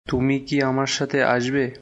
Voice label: Bengali, Bangladeshi Accent